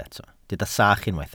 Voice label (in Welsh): "sach", Welsh